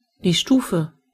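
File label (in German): "step", German; gcompris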